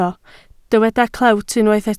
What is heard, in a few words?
"clewt", Welsh